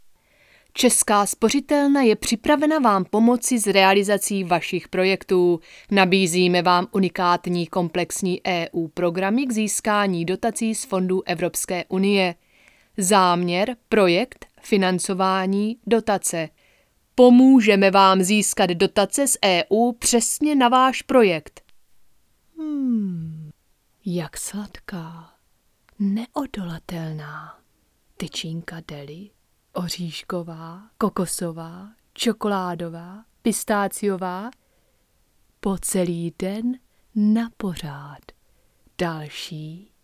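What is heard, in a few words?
Czech General; GoLocalise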